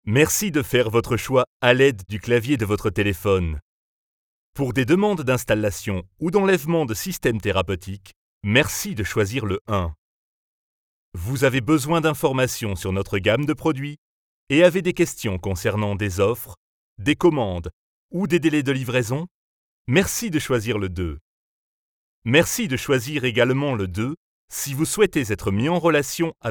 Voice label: Voix grave accueillante IVR

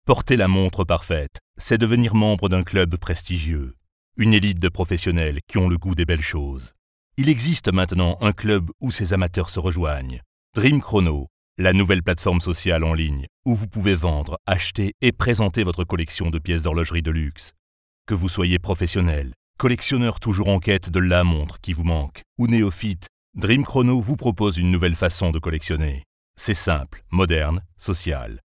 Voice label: voix grave classe; Dreamchrono

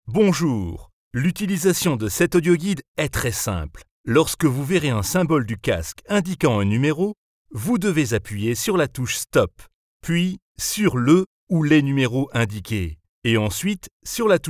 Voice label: voix grave Audioguide Intro; Nerja

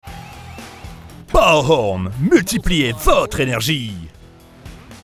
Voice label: Voix grave percutante Signature; Powerhorn

